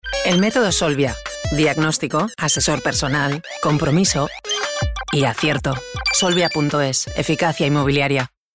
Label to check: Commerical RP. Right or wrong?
Wrong